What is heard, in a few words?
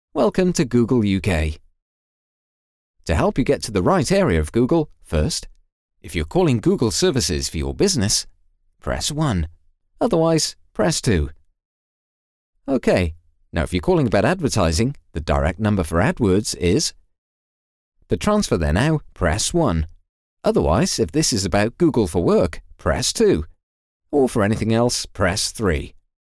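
english british interactive voice response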